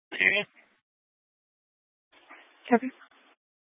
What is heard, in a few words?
Sheriff Dispatch, scanner; Mesa County